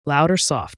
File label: words loud or soft